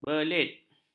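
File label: "belit", Malay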